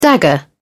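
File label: "dagger", British English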